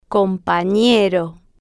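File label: "companero", spanish, slow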